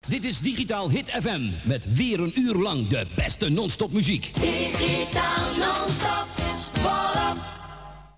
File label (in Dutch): Jingle; radiopiraten